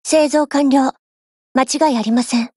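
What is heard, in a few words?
build over, Japanese; HK416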